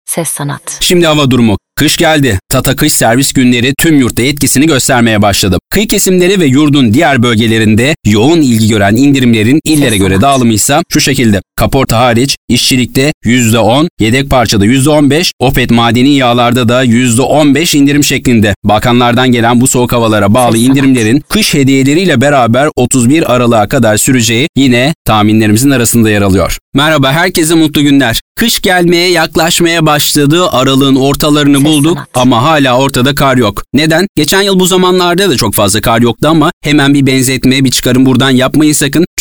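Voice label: Turkish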